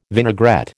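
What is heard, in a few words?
"vinaigrette", American English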